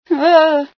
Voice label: female sci fear

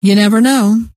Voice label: you never know, scientist female

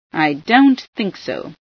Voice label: i dont think so, female

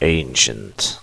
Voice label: "ancient", word

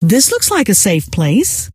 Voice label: rant, scientist female

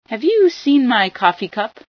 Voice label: seen cup, female sci

